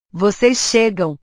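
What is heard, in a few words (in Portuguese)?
"vocês chegam", Portuguese; portugues/verbos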